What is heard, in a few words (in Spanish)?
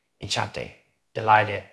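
Voice Acting, Spanish, male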